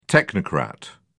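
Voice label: "technocrat", British English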